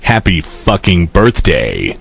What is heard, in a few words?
cheer; postal dude